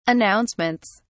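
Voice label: "announcements", British English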